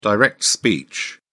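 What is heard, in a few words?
"direct speech", British English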